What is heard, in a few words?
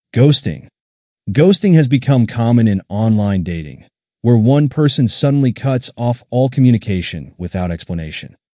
Ghosting, how to pronounce; pronounce these words